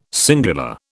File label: "singular", British